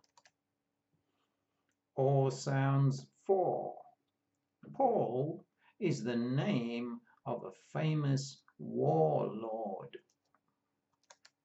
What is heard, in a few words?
Or sounds, pronunciation of australian english